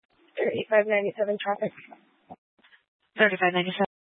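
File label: Police Dispatch, Scanner audio; Police 1 Dispatch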